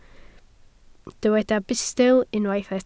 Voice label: "bustl", Welsh